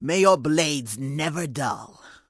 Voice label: Orc Female Standard NPC Farewell